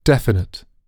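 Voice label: "definite", British English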